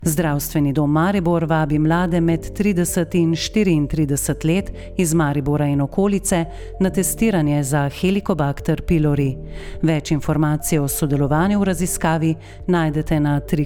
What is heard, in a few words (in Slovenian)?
audio reklama z glasbo